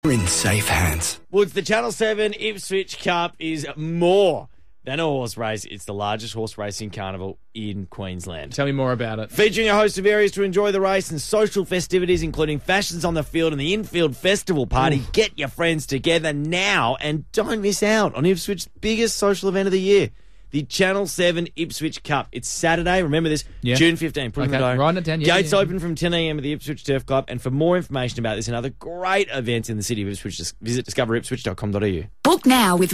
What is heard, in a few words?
Friday Drive Live Read; Ipswich Cup; 97.3 FM